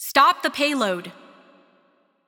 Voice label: Announcer Match Role Defend

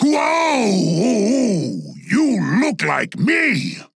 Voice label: Taunt Directed; Grohk; paladins

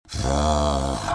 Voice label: anger

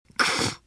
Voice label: anger; Puck

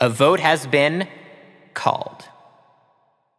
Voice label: Announcer Voting Called

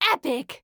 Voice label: Announcer Lobby Card Epic; Evie

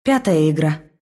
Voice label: Announcer, ru; Dota 2

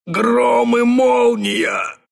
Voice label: death, ru; dota2

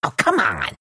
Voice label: anger; meepo

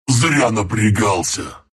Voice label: failure, ru

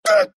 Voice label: pain, ru

